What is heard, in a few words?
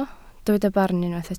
"barn", Welsh